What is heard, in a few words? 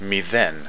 "zero", Greek